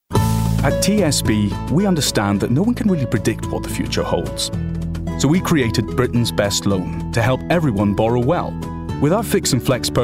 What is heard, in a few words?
Commercial Voicereel